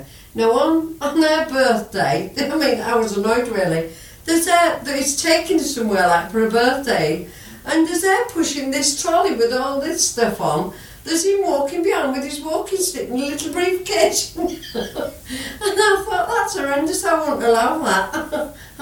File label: Beverley Sheffield UK 2 White Females Elderly White Male Elderly; europe; british